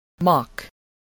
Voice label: "mock", voice, spelling